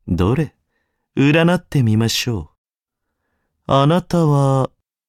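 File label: level up, Japanese; cookie run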